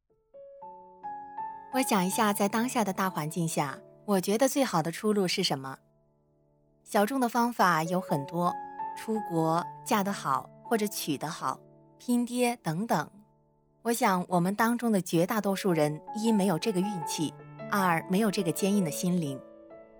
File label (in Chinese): 电台文